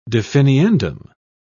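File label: "definiendum", British English, dictionary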